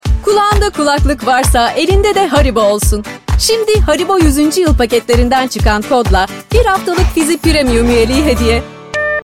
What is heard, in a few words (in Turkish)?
reklam neseli enerjik; haribo